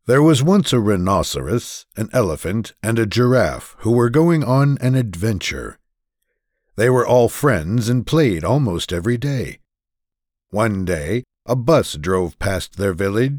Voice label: Childrens